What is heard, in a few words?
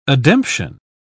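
"ademption", British English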